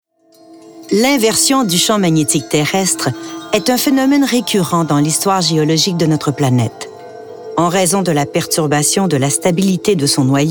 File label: narration